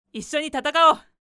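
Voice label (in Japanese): 女性 issyoni tatakaou; 女性 「一緒に戦おう」; パワフル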